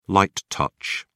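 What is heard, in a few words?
"light touch", English, British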